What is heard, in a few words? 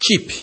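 "cheap", Nigerian Pidgin, male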